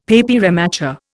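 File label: "papier mâché", Indian; Dictionary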